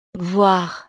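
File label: "voir", French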